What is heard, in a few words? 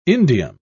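"indium", British English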